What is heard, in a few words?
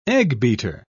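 "eggbeater", British English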